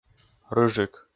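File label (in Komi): "рыжик", Komi, male; kpv audio dict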